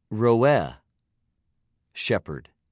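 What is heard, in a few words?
"רֹעֶה", Hebrew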